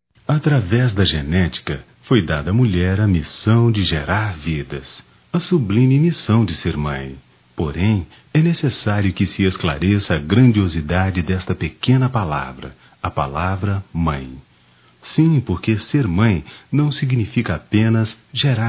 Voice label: Mãe p avó-f; Dia das Maes; Telemensagens 2017

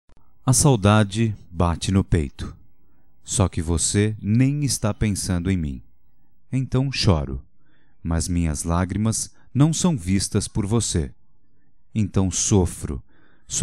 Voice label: Me Dê uma Chance Masculino

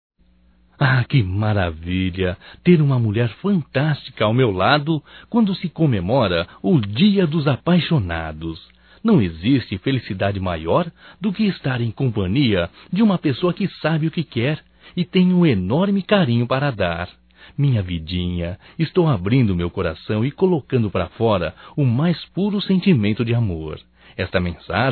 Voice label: DIA DOS NAMORADOS, Só Voz, masc; Telemensagens; HOMENAGENS

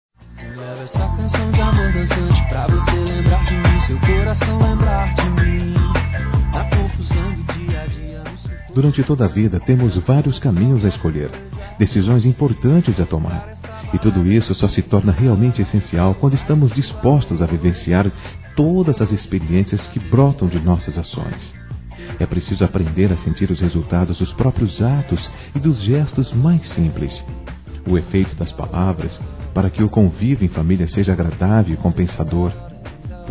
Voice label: Casamento Masculino; Telemensagens; Parabenização

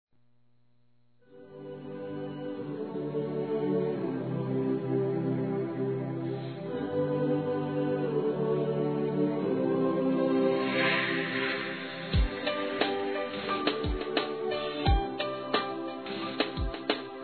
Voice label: Aniversário Sertanejo Declaração Amor Feminino; ANIVERSARIO romantico

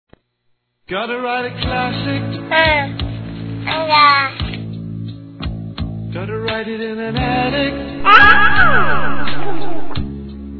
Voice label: Parabéns ao Futuro Pai Feminino; Telemensagens; Felicitações; Telemensagens 2017

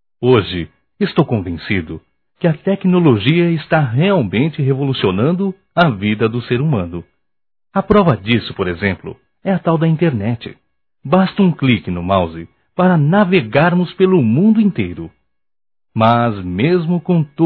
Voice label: Paquera Virtual Masculino; Telemensagens; Só Voz; Telemensagens 2017

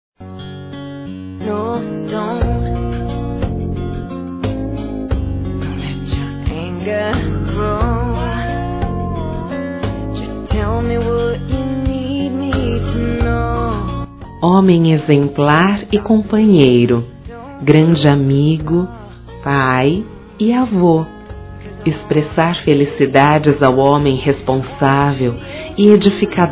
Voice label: PAI AVÔ, fem; Telemensagens 2017

